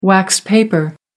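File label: "wax paper", British English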